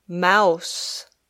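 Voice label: "mouse", English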